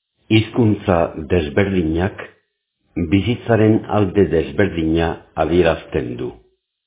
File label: basque